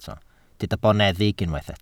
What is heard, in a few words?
"boneddig", welsh